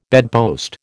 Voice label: "bedpost", American